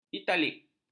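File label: "italik", Malay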